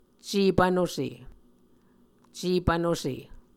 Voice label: "cepvnuse", muscogee